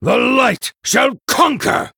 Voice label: Male Human Attack; Hero